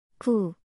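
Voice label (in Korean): "부", Korean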